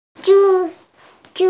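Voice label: "zhe2", Mandarin Chinese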